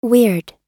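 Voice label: "weird", English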